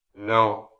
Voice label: Voice Acting, es